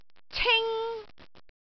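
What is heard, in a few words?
"cing1", Cantonese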